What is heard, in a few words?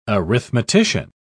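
"arithmetician", British English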